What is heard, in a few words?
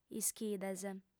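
"ischides", Sardinian, female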